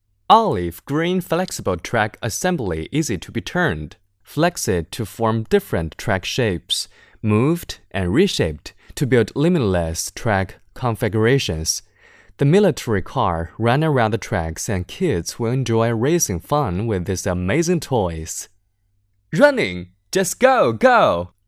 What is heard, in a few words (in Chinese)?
男 英文 解说类 car toys